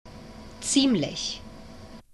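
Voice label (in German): "ziemlich", German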